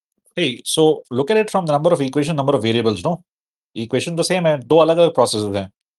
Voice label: voice message; how to solve bomb calorimeter questions